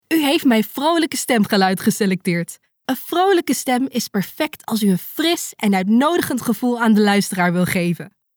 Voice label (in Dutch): Vrolijk